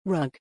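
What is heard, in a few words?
"rug", English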